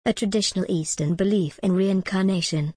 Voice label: en gb a traditional eastern belief in reincarnation